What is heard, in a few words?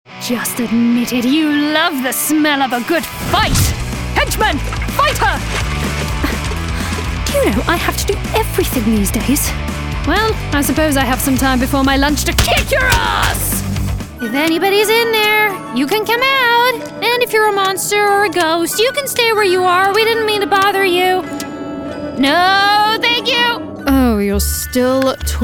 animation; VOICEFOX